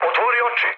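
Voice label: Serbian player friendly fire mood low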